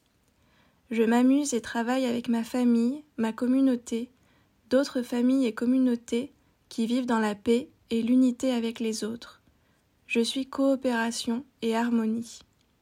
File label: I Am Cooperation and Harmony, French Female